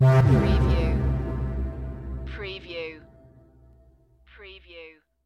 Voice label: "Evacuate" (reverb); lynne publishing

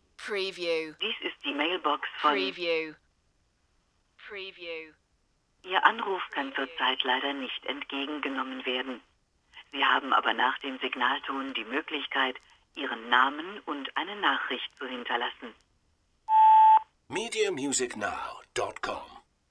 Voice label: german cell phone mailbox

